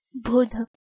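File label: "bhudh", Proto-Indo-European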